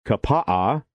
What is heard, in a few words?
kapaa, pronunciation hawaii